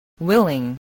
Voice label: "willing", voice, english